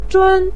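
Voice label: "zhun" neutral tone, Mandarin Chinese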